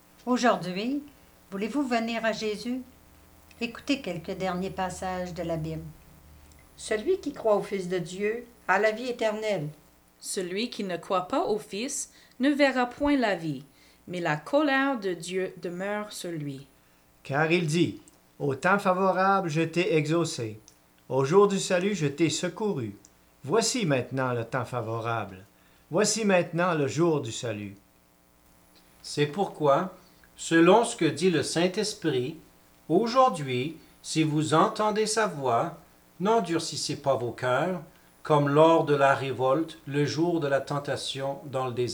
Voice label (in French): narration et dernieres lectures; Cantate : Christ notre Pâque; Pâques